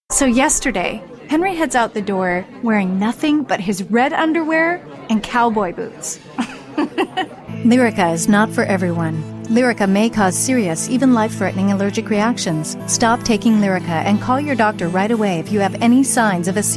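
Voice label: american us female commercial; english; voice demos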